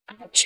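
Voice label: Voice Acting es, female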